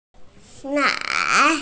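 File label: "nan2", Mandarin Chinese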